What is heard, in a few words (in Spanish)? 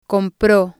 "compro", spanish